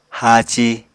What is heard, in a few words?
"haaci", Apatani